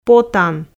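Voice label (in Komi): "потан" Komi, female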